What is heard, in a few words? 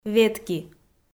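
"ветки", Komi, female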